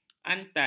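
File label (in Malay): "antan", Malay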